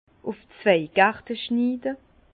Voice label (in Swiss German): "gerte", Bas Rhin; vin; en double arcure